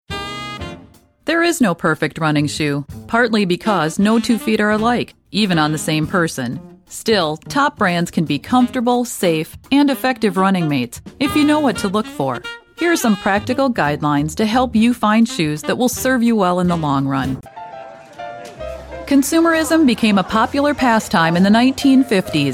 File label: Narration